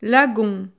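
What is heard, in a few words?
"lagon", French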